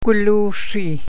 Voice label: "may", Gwich'in; Gwilyuu zrii'